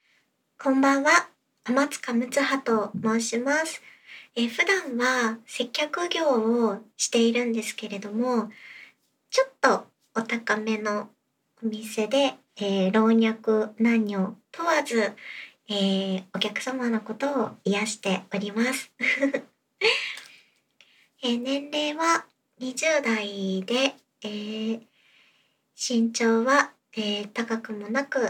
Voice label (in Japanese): 自己紹介, ASMR